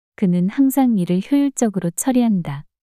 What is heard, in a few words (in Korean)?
그는 항상 일을 효율적으로 처리한다 문장 여자